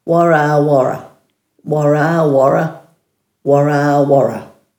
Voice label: "waraawara", Dhurga; dulagaal

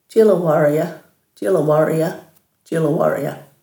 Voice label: "djilawaray", Dhurga

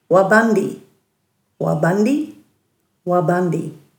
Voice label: "wabandi-", Dhurga

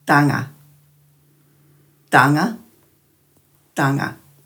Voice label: "dhanga", Dhurga

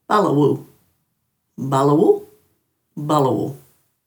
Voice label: "balawu-", Dhurga

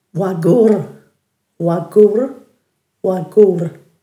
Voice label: "wagurr", Dhurga

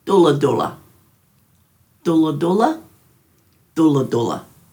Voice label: "dhuladhula", Dhurga

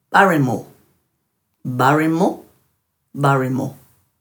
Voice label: "barimu", Dhurga

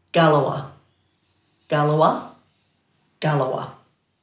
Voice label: "galawa", Dhurga

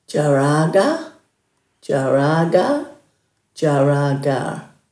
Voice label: "djaraagar", Dhurga

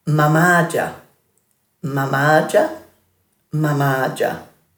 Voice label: "mamaadja", Dhurga

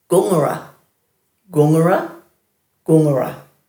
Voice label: "gungara", Dhurga

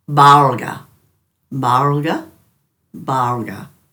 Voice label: "barlga", Dhurga

